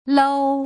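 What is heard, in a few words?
"lou", Mandarin Chinese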